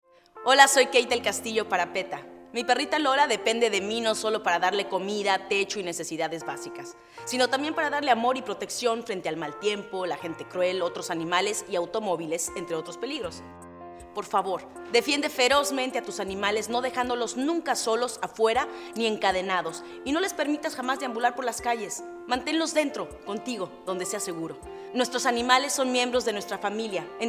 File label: PSA Spanish; Kate Del Castillo; peta